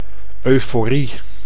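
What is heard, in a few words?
"euforie", Dutch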